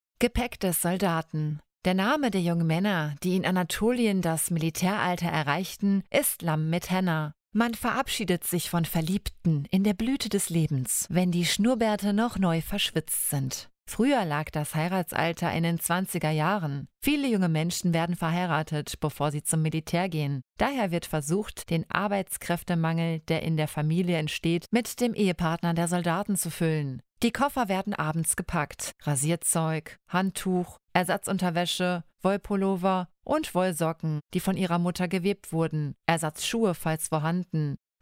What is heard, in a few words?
deutsch